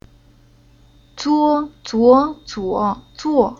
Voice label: "Zuo", Chinese